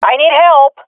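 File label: request help, swat female; vo; Counter Strike Model Pack; CS:GO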